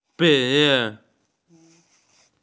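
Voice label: "graduation", Mandarin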